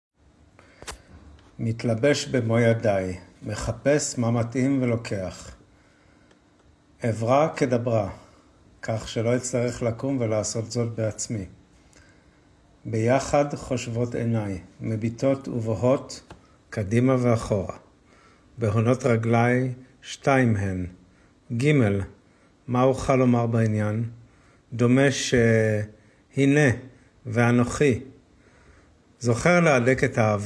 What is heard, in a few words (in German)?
hebraeisch